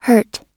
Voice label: "hurt", pronunciation